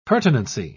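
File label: "pertinency", British English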